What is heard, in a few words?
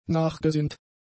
"nachgesinnt", German; adjectives